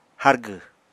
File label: "hárgw", Apatani